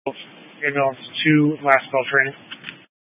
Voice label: Fire Department Dispatch; Grand Junction; scanner

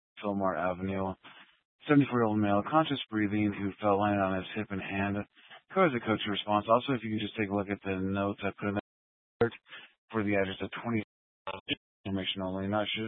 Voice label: Fire Department Dispatch, scanner

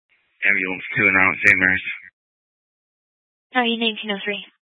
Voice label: Fire Dispatch, Scanner audio recording

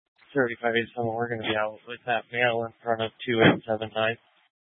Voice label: Police Department Dispatch; Grand Junction

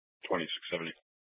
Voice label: Mesa County Sheriff Dispatch

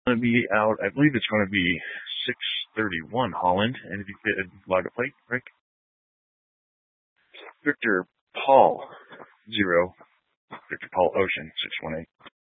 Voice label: Sheriff Dispatch; Mesa County

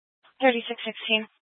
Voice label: Police Department Dispatch

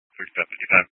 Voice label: Police Dispatch

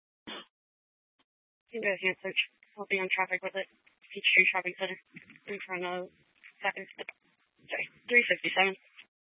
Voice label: Mesa County Sheriff Dispatch

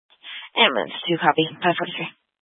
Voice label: Fire Dispatch, scanner

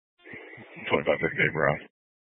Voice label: Sheriff Dispatch, scanner; Mesa County

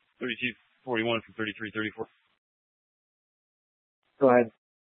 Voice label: Police Dispatch, scanner; Police 1 Dispatch

